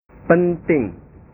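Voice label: "penting", Indonesian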